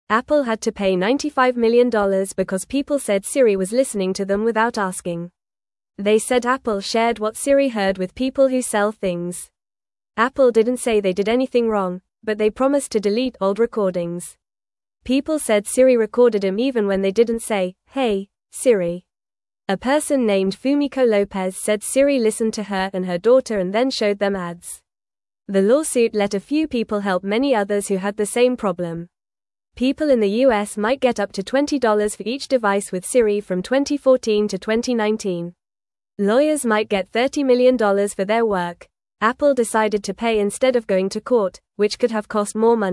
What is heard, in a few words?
English Newsroom Beginner FAST Reading; Apple Pays for Listening to Siri Without Asking